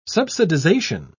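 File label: "subsidisation", British English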